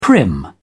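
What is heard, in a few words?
"prim", British English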